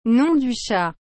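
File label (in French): "nom du chat", French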